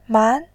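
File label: "man", Mandarin, tone 2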